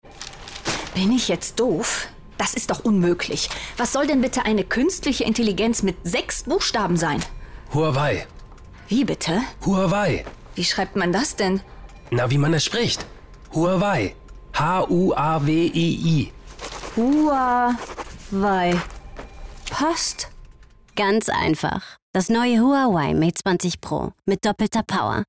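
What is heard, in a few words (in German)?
Funk Schlaue Antwort KI; Huawei; Spotify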